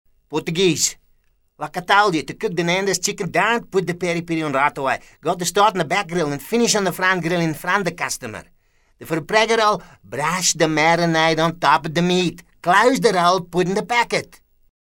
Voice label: PORTUGUESE